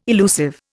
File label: "elusive", Indian